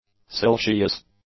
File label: "siliceous", English; adjectives